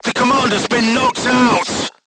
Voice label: voice message commander contused, crew tanks vietnamese